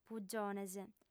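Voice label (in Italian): "pugiones", lingua sarda, fem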